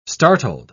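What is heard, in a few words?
"startled", British English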